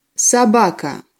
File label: "sobaka" Russian